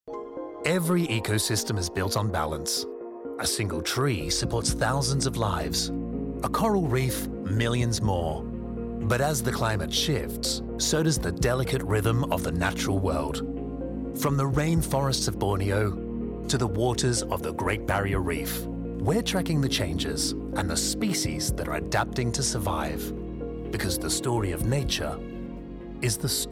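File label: NARRATION, Authentic Australian Voiceover; NAT GEO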